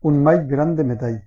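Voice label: mallet metal desc, Catalan; household; tools; tuxpaint-stamps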